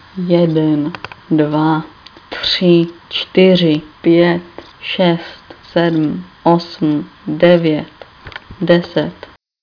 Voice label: czech; numbers

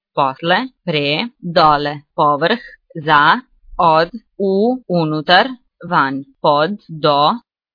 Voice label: croatian; words

